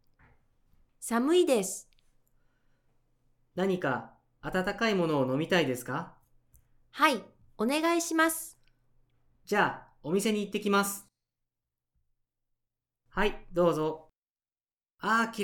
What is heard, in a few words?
Conversation w SFX